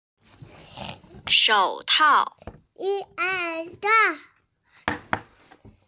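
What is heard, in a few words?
"shou3tao4", Mandarin Chinese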